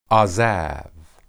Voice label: "azab", Hebrew